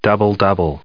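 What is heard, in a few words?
"doubles", US English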